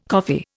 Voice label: "coffee", Indian